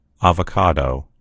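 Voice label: "avocado", American English; gcompris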